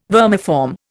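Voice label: "vermiform", Indian English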